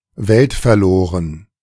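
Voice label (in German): "weltverloren", German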